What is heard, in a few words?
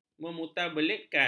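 "memutarbelitkan", Malay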